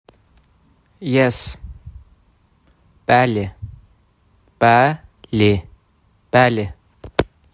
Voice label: "Yes." "Bəli", azerbaijani